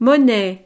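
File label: "monnaie", French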